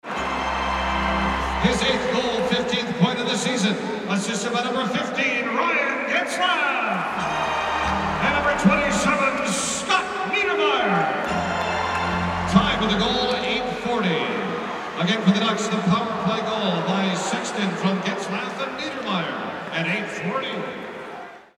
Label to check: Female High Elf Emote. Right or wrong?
Wrong